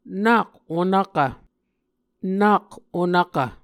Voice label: "nak onvkv", Muscogee